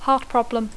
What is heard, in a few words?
"heart problem", English; Dacco